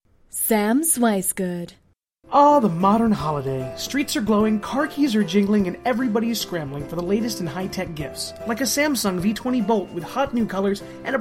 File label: Commercial